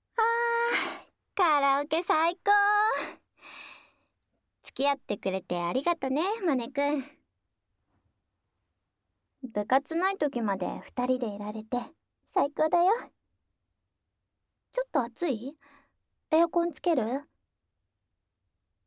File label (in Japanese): 耳舐め, ASMR; カラオケデートで密室密着耳舐めえっち♪